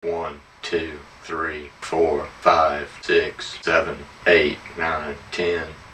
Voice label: fast count up from 1 to 10